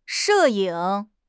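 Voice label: "she4 ying3", Chinese; 汉语口语新时代-初级下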